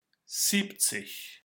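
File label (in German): "siebzig", German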